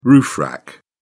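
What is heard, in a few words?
"roof rack", English, British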